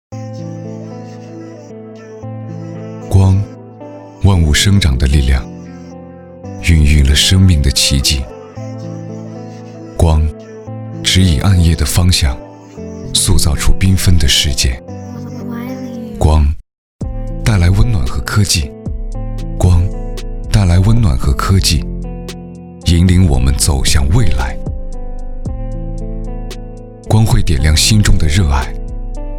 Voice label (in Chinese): 男 走心旁白